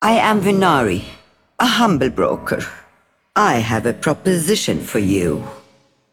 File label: Female Broker Bartender Picked